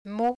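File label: "mot", French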